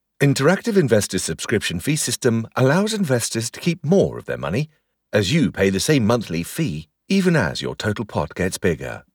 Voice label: Investment AD VO; Guardian